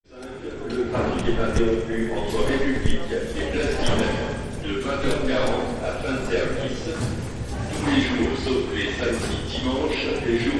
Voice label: Metro Announcer